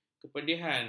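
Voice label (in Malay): "kepedihan", Malay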